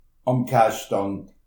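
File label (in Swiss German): "kaastand", Alsatian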